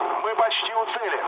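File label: near waypoint, mood low, Russian; War Thunder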